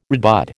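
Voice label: "redbud", American English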